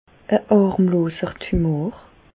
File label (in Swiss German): "harmloser tumor", Bas Rhin; medecine; tumeur bénigne